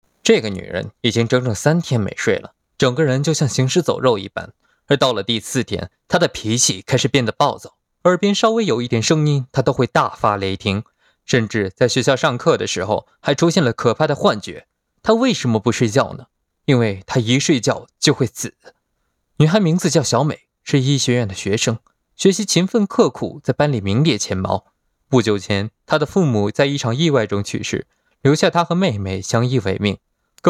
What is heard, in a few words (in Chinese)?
电影解说